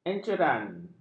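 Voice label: "enceran", Malay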